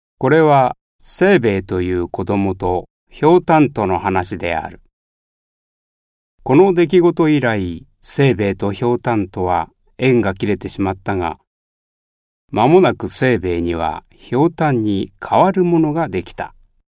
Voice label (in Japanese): 朗読